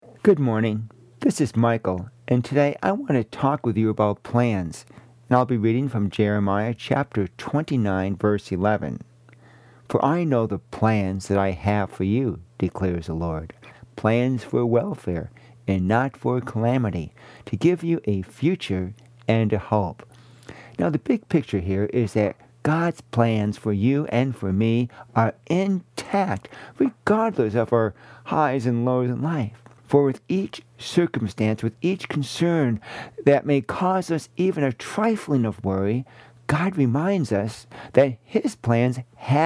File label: Radio Sermonette; Jeremiah 29 11; Plans; plans that can be set into stone